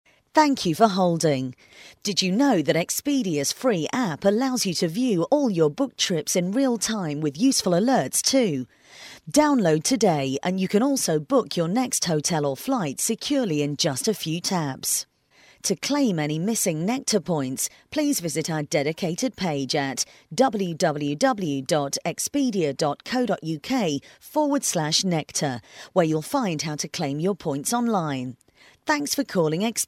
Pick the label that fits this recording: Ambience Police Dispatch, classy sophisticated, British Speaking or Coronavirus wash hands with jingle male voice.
British Speaking